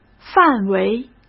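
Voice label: "范围", Mandarin Chinese; chinese flashcards; hsk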